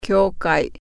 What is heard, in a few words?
church, japanese